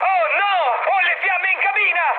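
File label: Italian on fire mood high, speech; War Thunder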